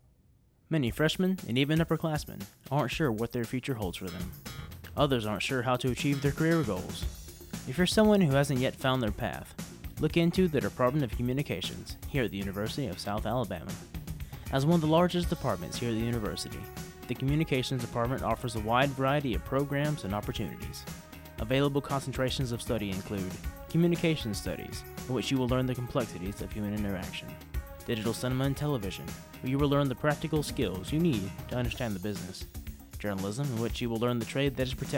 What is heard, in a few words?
commercial